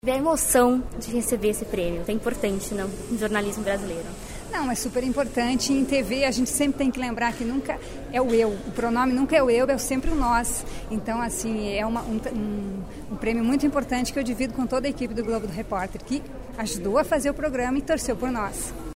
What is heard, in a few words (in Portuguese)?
entrevista complementar; Tv Documentrio